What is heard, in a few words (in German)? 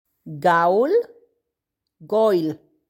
"Gaul" "Gaeul"